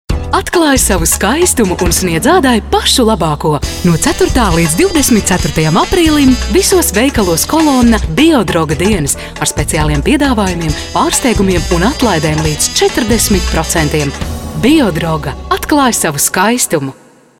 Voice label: premium female, balsai latviu moteris